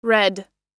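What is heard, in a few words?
"read", US English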